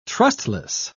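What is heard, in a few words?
"trustless", British English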